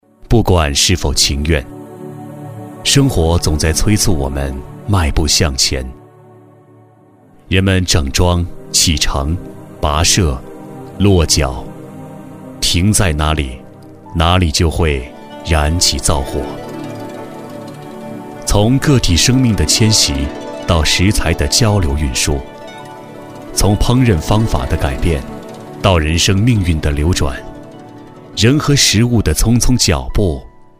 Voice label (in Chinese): 男 专题【讲述】; 舌尖上的中国